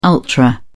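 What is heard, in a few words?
"ultra", British English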